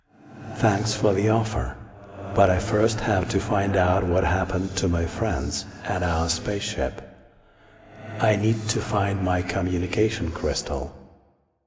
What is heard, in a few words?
Voice Dialog; we are the dwarves gamerip 2016